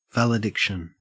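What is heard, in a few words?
"valediction", Australian English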